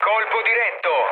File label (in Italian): bomb success, mood low, speech it; War Thunder